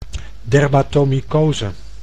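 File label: "dermatomycose", Dutch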